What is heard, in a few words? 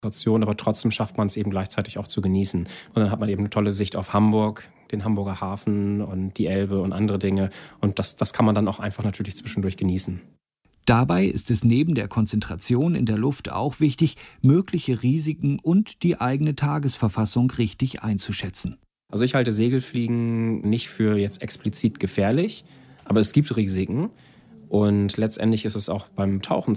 German 1 minute